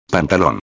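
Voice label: "pantalon", Spanish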